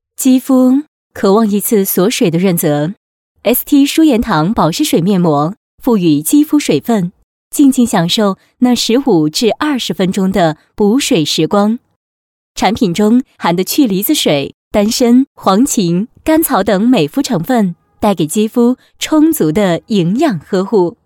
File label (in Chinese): 女 广告 补水面膜